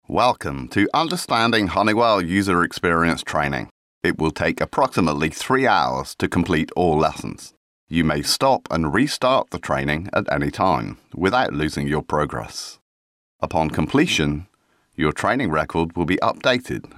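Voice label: Male English IVR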